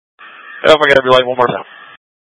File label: Mutual Aid-Intersystem Interop B, scanner